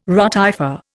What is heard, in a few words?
"rotifer", Indian